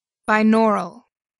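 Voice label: "binaural", English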